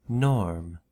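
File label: "norm", US English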